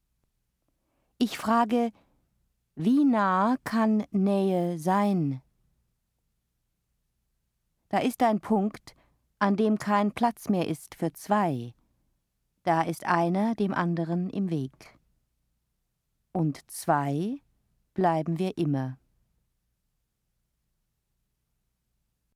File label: Du sprichst, German; Du sprichst von Nähe, von Kristiane Allert-Wybranietz